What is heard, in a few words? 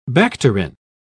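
"bacterin", British English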